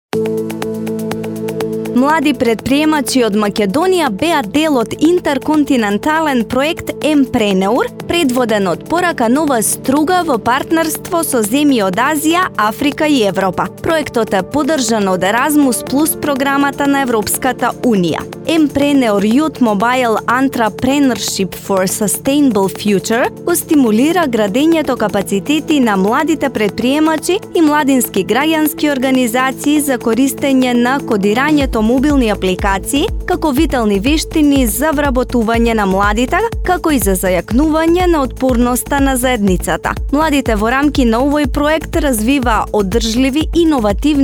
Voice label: Radio PR